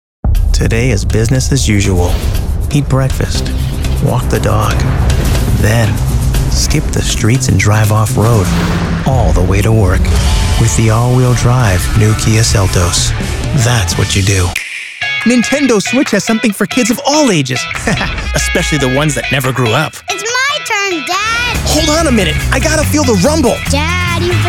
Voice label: Commercial